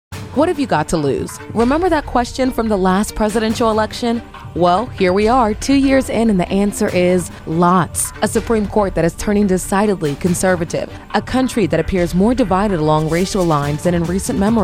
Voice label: FEMALE SPOT; GOTV